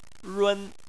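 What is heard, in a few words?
"run", Chinese, tone 1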